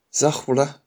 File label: fire shanghainese